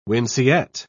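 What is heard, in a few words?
"winceyette", British English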